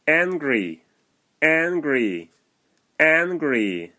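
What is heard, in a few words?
"Angry", English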